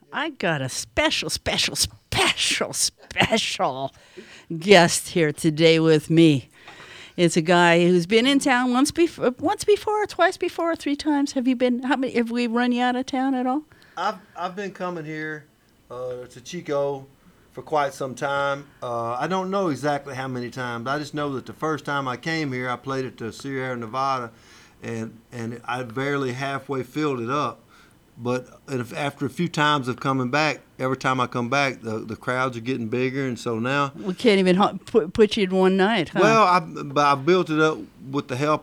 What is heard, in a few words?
interview; Ocean of Rock and Roll